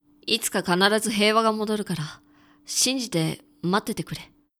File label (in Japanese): dansei, itukakanarazuheiwagamodorukarashinzitemattetekure; クール